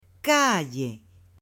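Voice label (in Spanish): "calle", Spanish, female; LUGARES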